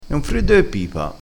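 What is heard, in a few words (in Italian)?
"freddo e pipa", dialettale crespinese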